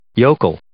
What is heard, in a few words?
"yokel", English